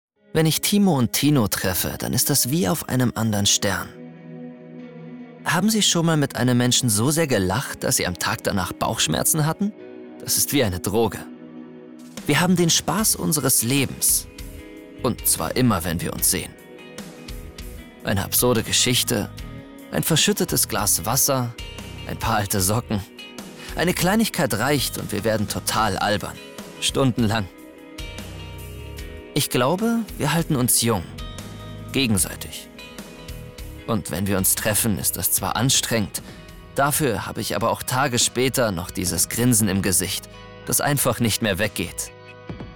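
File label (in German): erzaehler, sprecher; tino timo tim; LOFT VOICES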